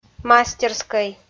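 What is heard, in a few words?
"мастерскӧй", Komi, female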